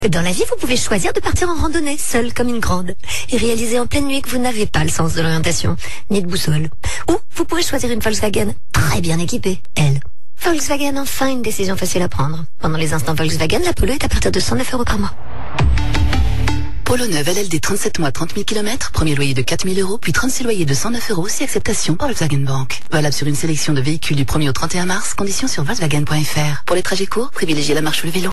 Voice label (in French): Publicite Radio; VOLKSWAGEN POLO